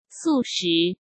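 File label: "素食", Mandarin Chinese; chinese flashcards; hsk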